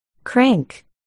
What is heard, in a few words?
"crank", articulation